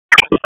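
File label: FIRE OPS, radio; wake; p25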